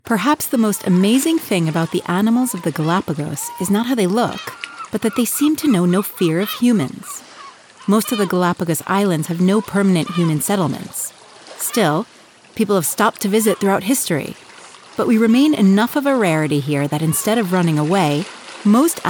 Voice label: english us and canada female e learning

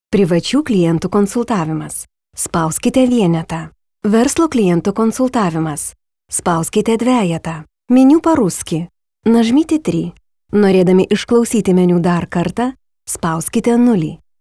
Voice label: lithuanian female corporate

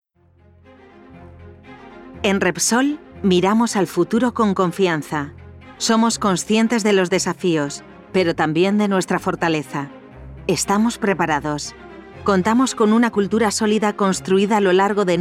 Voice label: spanish spain female corporate

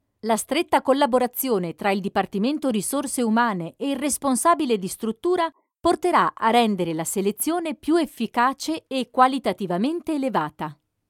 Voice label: italian female e learning

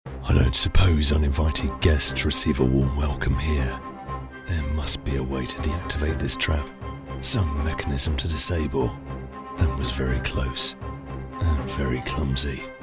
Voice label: english uk male corporate

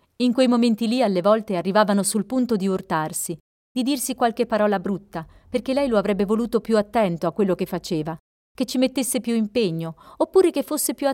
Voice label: italian female narrative

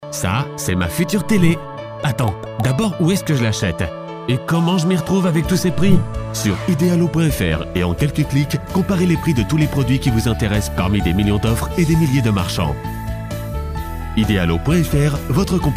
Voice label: french european male commercial

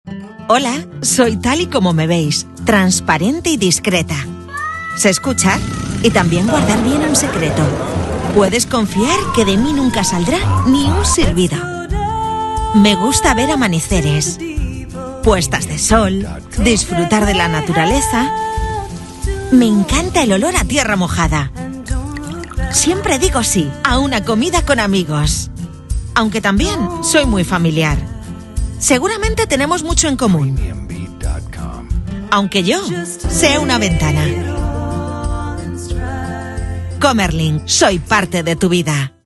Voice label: spanish spain female commercial

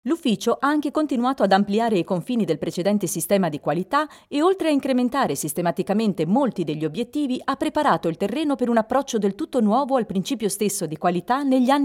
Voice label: italian female corporate

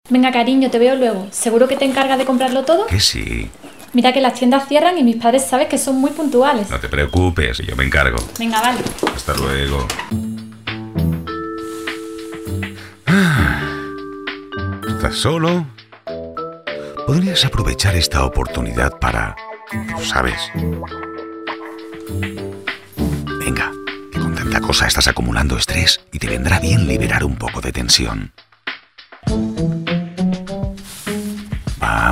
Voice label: spanish spain male commercial

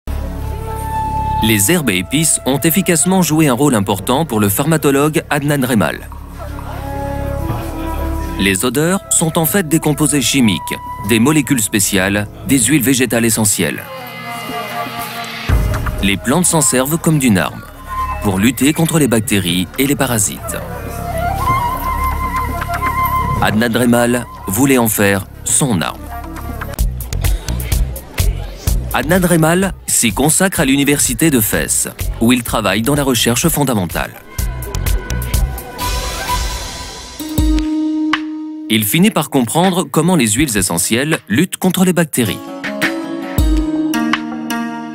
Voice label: french european male narrative

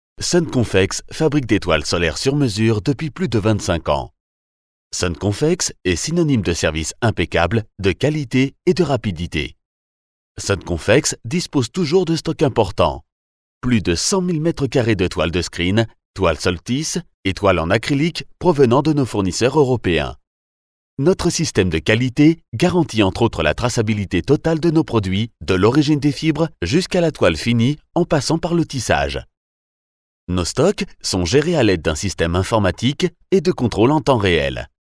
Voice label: french european male corporate

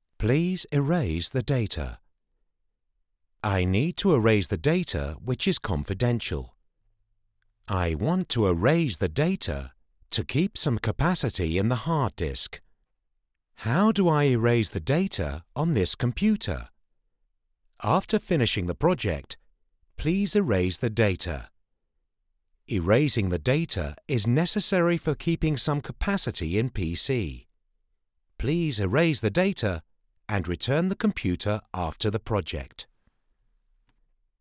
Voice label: english uk male e learning

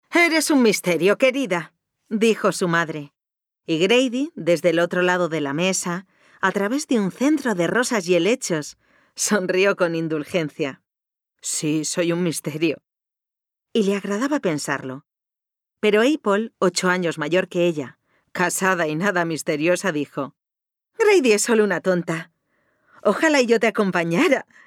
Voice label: spanish spain female narrative

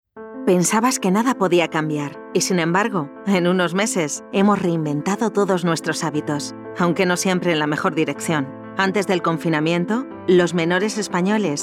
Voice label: spanish spain female generic

